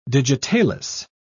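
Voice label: "digitalis", en, British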